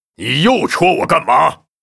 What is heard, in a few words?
Grunt pissed, zh; 魔兽争霸3; 苦工; 兽人; 你又戳我干吗？